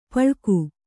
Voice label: "ಪಳಕು", Kannada

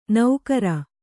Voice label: "ನೌಕರ", Kannada

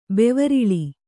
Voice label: "ಬೆವರಿಳಿ", Kannada

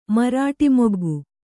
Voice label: "ಮರಾಟಿಮೊಗ್ಗು", Kannada

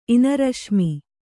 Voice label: "ಇನರಶ್ಮಿ", Kannada

